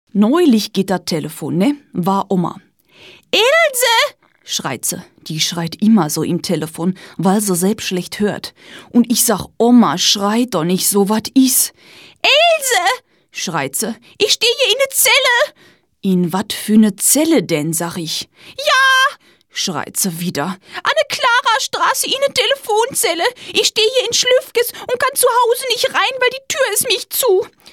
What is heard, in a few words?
westphalian dialect